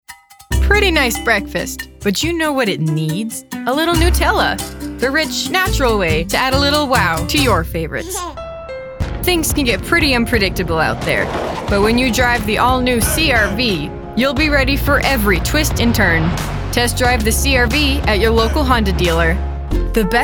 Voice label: Commercials